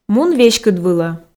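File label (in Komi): мун веськыдвылӧ, Komi, female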